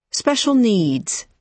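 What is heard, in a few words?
"special needs", British English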